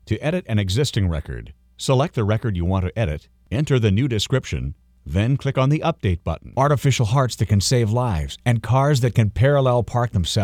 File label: narration